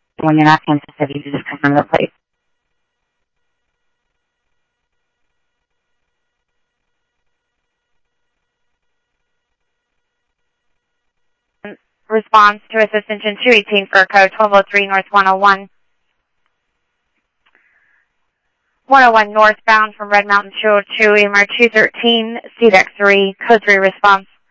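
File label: police radio dispatch, Scottsdale; SCTSDLE PD - PD1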